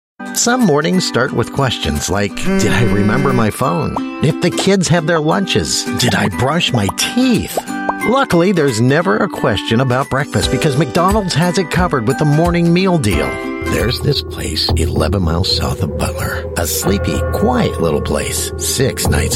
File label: DIY commercial